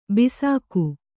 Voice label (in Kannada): "ಬೇಸಿಗೆ", Kannada